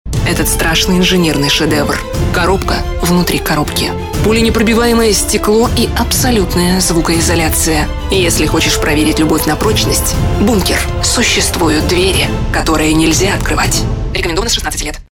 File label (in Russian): rus; dictory fem